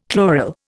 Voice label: "chloral", Indian

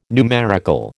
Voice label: "numerical", American

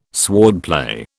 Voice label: "swordplay", British

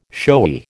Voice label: "showy", American

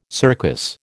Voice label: "circus", American

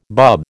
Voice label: "bub", American

